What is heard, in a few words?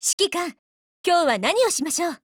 HELLO JP